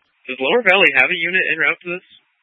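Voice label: Fire Dispatch, Scanner audio recording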